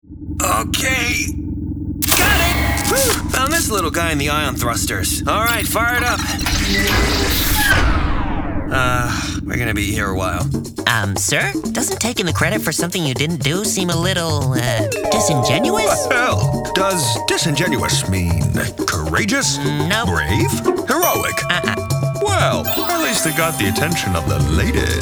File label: Character